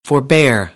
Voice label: "forebear", American; AmericanBritish